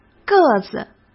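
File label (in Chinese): "个子", Mandarin Chinese; hsk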